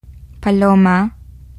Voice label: pigeon, es; gcompris